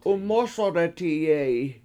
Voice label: "7umOsodetiye7i", Washo

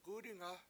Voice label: "gUdinga", Washo; someone

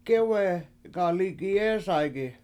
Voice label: I Didnt See A Coyote, Washo; gEwenga lIIgiyEEsay7gi

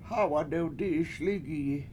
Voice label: I Saw Four Trees, Washo; hA7wa7 dewdI7iS lIIgiyi

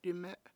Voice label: "dIme7" water, Washo

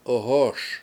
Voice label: "ohOS", washo; hat

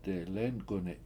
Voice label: "delEnk7uni7" Bent, Washo

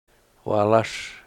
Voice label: "wAAlaS", Washo, bread

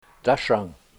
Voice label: "dashang" blood, Washo

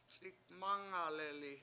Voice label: "c7ikmAngaleli" bump, Washo

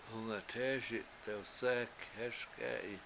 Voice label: How Deep Is It, washo; hungat7EESi dewsEk7 hEES k7E7i

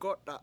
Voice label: to break, Washo

